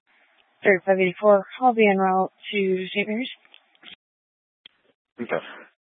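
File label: Police Dispatch, Scanner audio recording; Police 1 Dispatch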